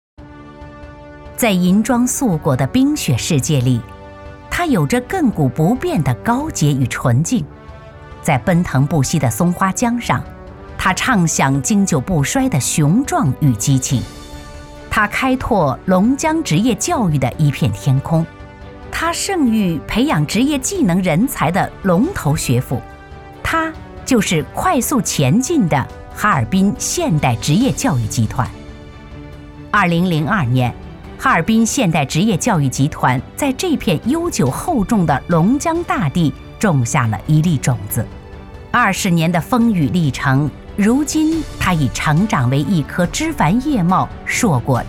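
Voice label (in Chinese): 专题学校院校教育稳重亲切女播; 打造职业教育的航母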